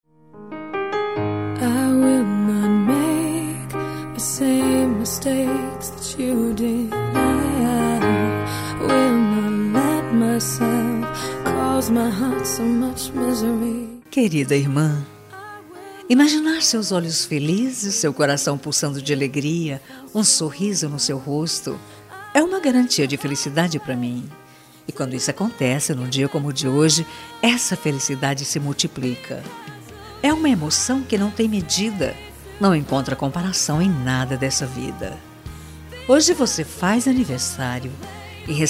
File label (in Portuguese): aniversario irma evangelica voz feminina; aniver irmaos